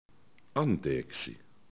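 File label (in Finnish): "anteeksi", Finnish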